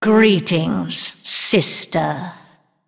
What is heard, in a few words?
Female Undead; Trigger Alleria